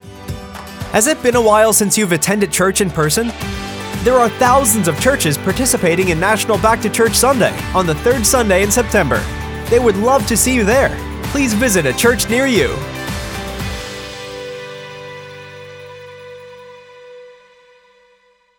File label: Radio PSA Male